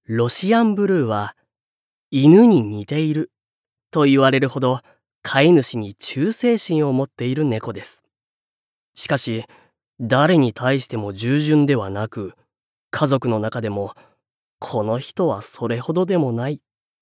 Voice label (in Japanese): ナレーション